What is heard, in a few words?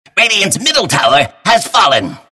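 announcer tower fall mid; clockwerk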